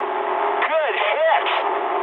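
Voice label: English bomb success mood med; speech; War Thunder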